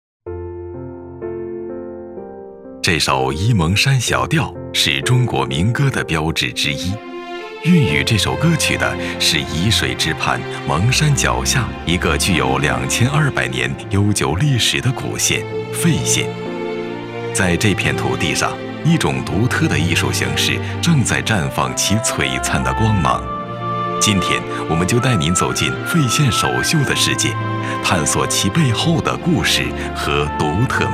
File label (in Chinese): 男 非遗 纪录片 传承 文化 传记; 指尖上的沂蒙精神